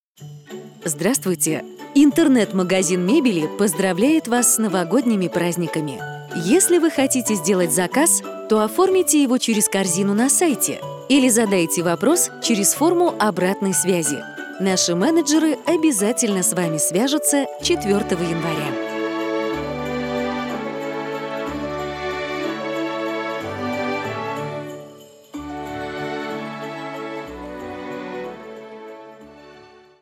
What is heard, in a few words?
IVR; Internet mag meb